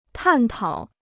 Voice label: "探讨", Chinese

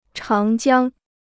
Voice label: "长江", Chinese

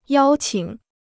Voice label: "邀请", Chinese

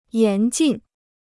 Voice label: "严禁", Chinese

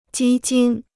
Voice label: "基金", Chinese

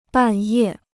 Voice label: "半夜", Chinese

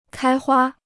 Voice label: "开花", Chinese

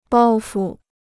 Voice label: "包袱", Chinese

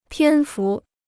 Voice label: "篇幅", Chinese

